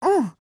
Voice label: AGREE, JP